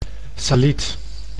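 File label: "satelliet", Dutch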